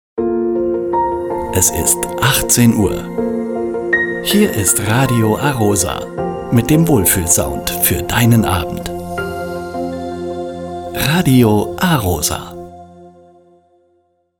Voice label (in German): Zeitansage 18 Uhr